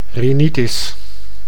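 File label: "rhinitis", Dutch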